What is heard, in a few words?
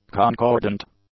"concordant", English; adjectives